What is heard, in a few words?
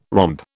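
"romp", British English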